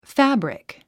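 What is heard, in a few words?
"fabric", English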